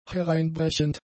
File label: "hereinbrechend", German; adjectives